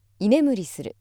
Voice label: female